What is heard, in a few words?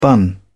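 "bun", British English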